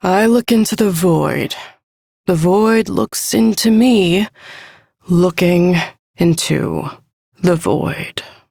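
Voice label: Ambient Female Sedentary, Dialog; Duviri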